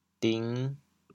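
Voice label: "ding5", Teochew, chaoyang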